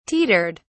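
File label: "teetered", British English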